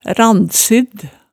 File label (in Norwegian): "randsydd", Hemsedal